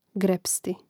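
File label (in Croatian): "grepsti", hrvatski; mreznik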